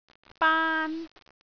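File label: "baan1", Cantonese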